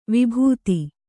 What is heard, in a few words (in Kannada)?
"ವಿಭೂತಿ", Kannada; vibhūti